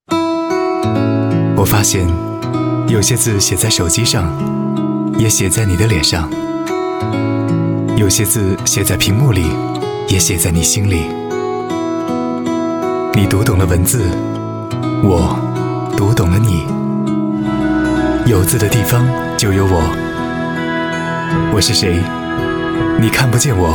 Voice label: 男 广告 亲切; 互联网

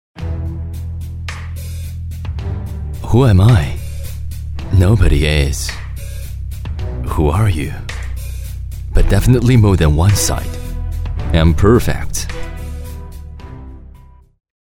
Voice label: 男英 广告 服装 服饰 科技; TVCRETRO服饰; 外语; 英语

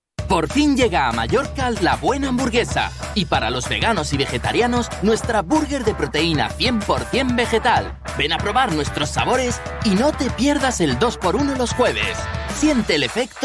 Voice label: 西班牙语男 外语 小语种 活力

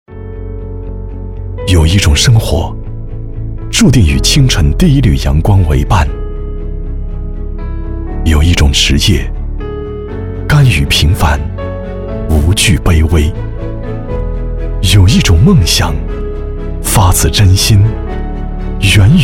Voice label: 男国 专题 企业 温情; 中国餐饮人

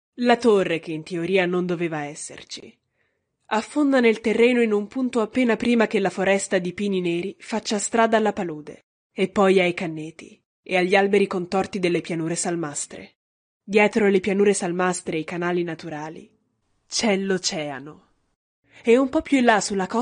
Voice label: 意大利语女 外语 小语种

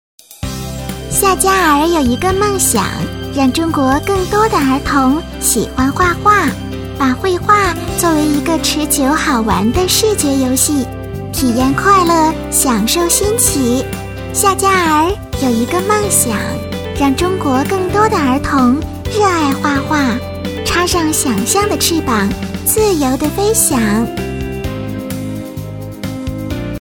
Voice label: 动画 童声 女童, 女国

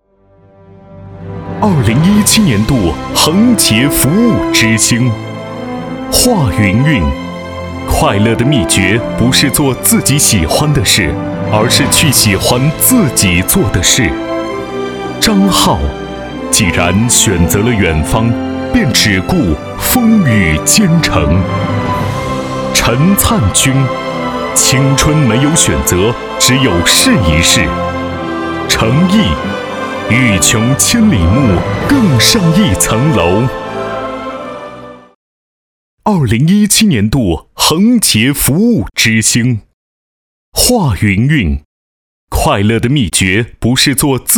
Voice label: 男国 专题 颁奖 台词, 浑厚大气宣传配音; 2017年度恒洁台词

